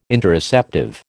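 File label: "Interoceptive", American English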